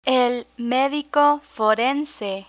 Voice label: medico forense, Spanish